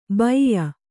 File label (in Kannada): "ಬೈಯ", Kannada